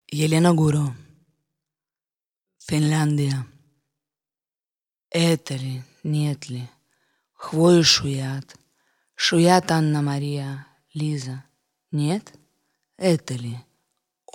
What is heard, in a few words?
finland sound poem; elena guro; Baku Symphony of Sirens